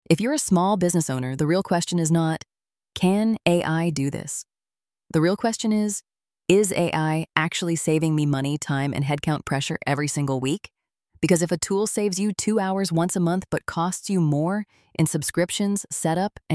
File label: voiceover